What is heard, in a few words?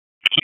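EMS Dispatch; wake p25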